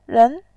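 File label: "ren", Mandarin Chinese, second tone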